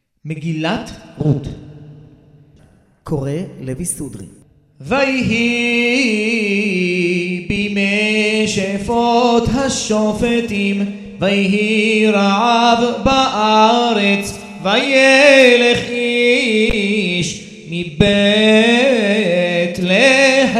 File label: recorded tanach megilat rut sefardi moroccan